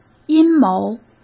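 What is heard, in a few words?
"阴谋", Mandarin Chinese; chinese flashcards; hsk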